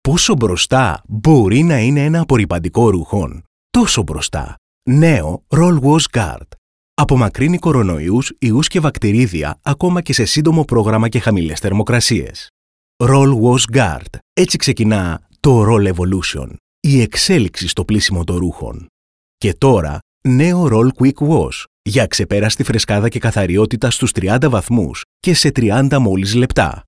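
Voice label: Greek, male, narration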